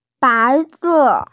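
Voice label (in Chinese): "bai2se4", Mandarin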